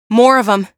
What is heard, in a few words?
combat gradual quiet; hl2; ep1; alyx